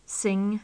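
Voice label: "sing", British English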